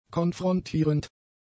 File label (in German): "konfrontierend", German; adjectives